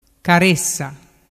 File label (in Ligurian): "caressa", dialettu